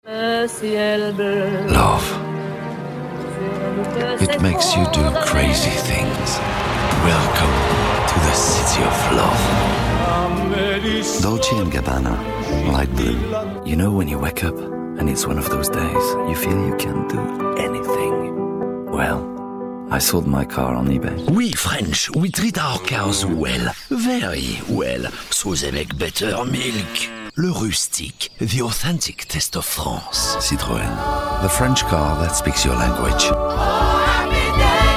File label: English VO